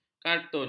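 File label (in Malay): "kartun", Malay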